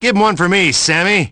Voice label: battlecry; ash

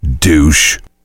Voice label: heavy negative vocalization; tf; duke

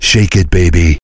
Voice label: heavy positive vocalization; tf; duke

